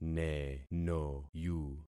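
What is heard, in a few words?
"nenoyu", concatenated syllables; Affixing experiment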